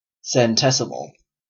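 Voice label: "centesimal", Canadian English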